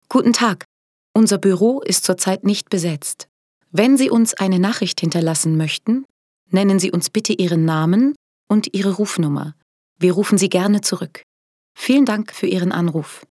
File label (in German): telefonpaket anrufbeantworter